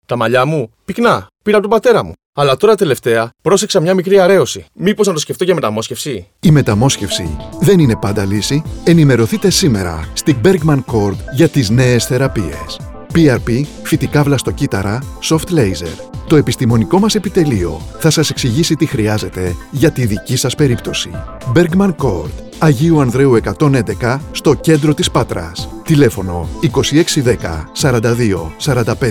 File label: radio spots; trixoptwsi metamosxefsi malliwn therapies